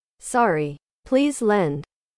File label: english